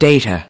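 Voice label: "data", English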